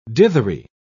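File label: "dithery", British English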